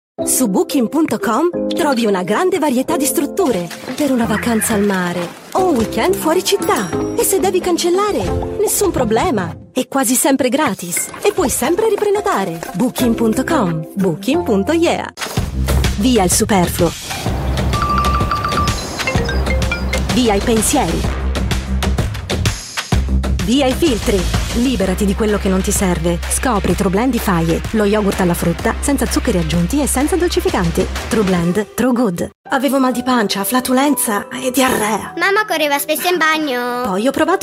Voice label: italian voice commercial

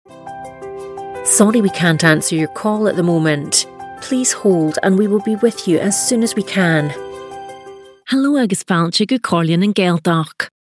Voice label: telephone

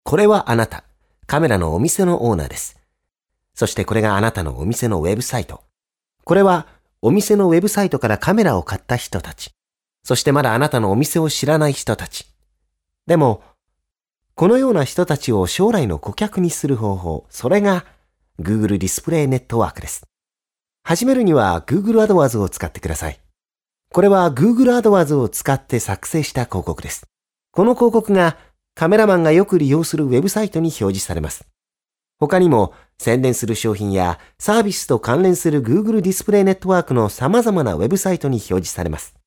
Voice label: japanese; google web vid

